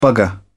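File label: "bugger", British English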